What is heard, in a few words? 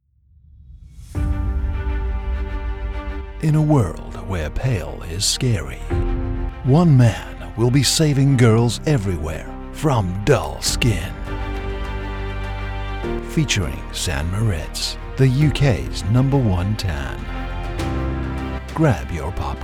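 advert sample; San Moritz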